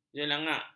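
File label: "jelangak", Malay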